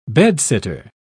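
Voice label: "bedsitter", English, British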